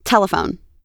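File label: "telephone", US English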